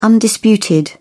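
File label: "undisputed", British English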